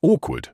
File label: "awkward", medical english uk; ˈɔː.kwəd; OR-kwuhd